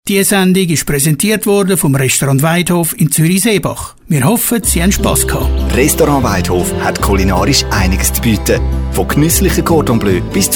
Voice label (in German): Werbung Outro; Waidhof